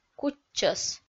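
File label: "кутчӧс", Komi, female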